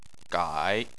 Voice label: "gai3", Chinese